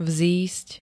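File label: "vzísť", Slovak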